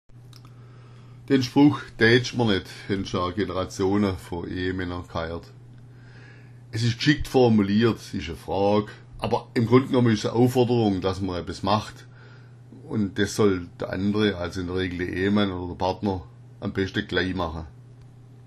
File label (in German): daetsch, schwaebisch; Dätsch mr net